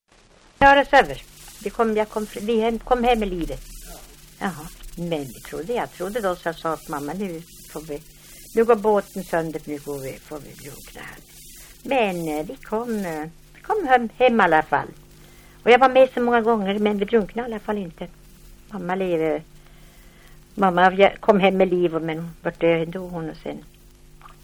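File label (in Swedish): Älvkarleby Uppland, svenska sagor dialekter; Folkminnen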